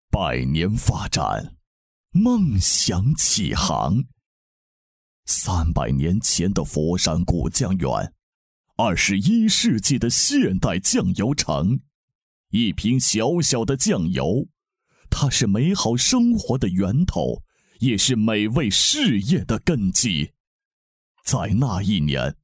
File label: male chinese voice over talent narrative; mandarin chinese